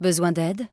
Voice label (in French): besoin aide, human male; PhoenixCraft-1.16.5; PhoenixNPCPack; minecraft